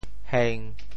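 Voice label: "heng1", Teochew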